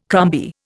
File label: "crumby", Indian